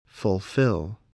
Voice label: "fulfill", voice